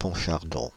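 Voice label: "Pontchardon", French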